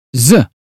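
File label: zoo, British phonetics sound; 英语音标发音（剑桥版）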